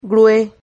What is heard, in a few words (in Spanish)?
"grue", Silabas